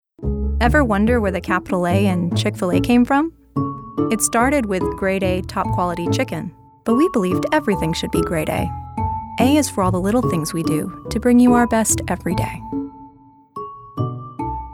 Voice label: Natural